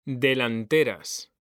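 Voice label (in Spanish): "delanteras", Spanish; mekanta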